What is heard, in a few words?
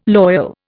"loyal", Indian